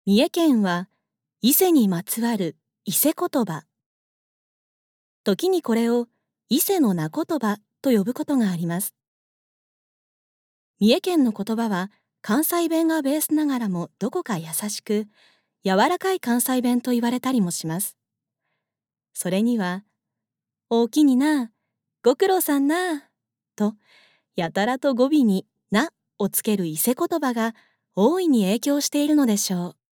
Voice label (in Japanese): ナレーション